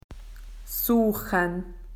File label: "to search", AU; PictureDictionary